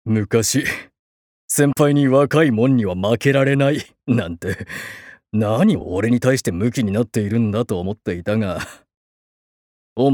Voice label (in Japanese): 外画